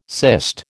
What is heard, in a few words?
"cyst", American